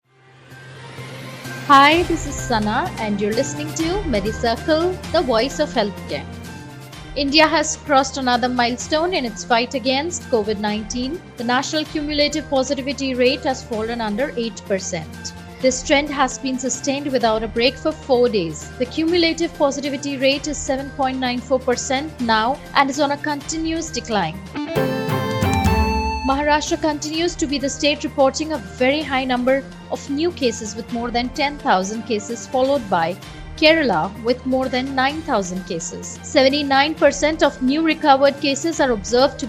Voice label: english news